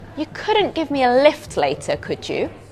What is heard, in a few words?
polite request